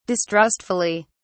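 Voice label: "distrustfully", British English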